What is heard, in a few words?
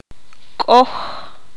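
"Máscara", Q'anjob'al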